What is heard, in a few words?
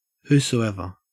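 "whosoever", Australian English; pronunciations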